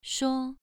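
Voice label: "shuo" Mandarin Chinese, second tone, pronunciation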